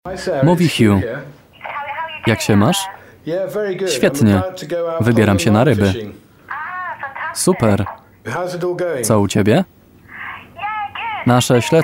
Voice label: polish documentaries